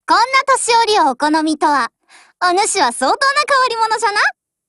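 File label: GAIN, Japanese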